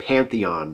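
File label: "pantheon", American English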